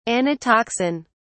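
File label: "anatoxin", English, British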